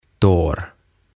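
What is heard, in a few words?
"Tår", Swedish; Varpaat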